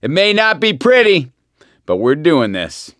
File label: hurrah, survivor voice; gambler